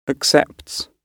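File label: "accepts", British English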